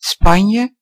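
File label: "spain", Dutch; gcompris-data; geography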